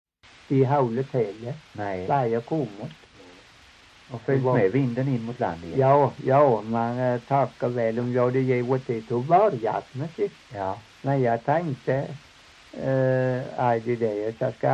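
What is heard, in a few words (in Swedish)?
Torhamn Blekinge, dialekter; Folkminnen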